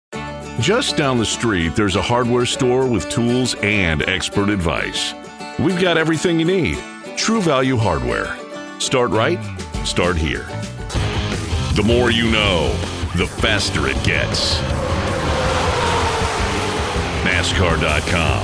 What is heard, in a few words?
Commercial